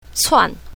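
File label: "cuan4", Chinese pinyin, south, female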